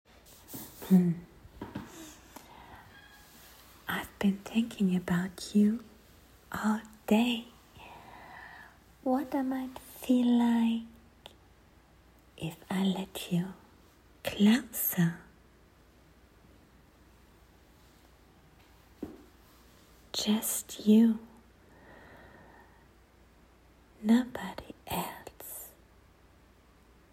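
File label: [F4M] Surprise [soft] [roleplay] [no music], female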